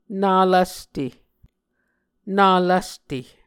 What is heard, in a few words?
"na lvste", Muscogee